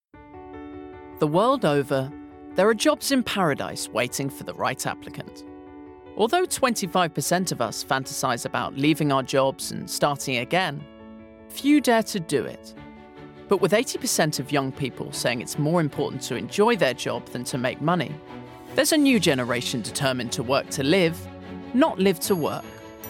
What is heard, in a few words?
Documentary